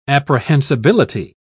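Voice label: "apprehensibility", British English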